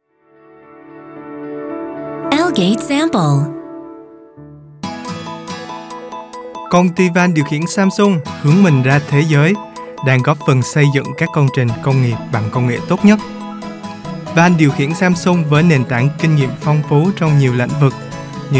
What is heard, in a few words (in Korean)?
베트남 남 밝은 톤 호치민 억양